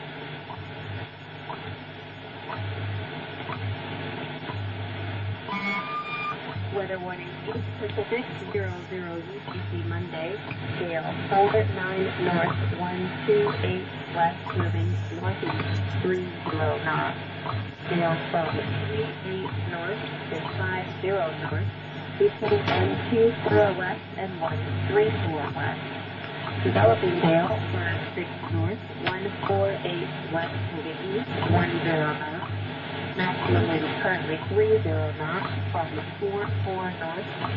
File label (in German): funkwetterbericht wwvh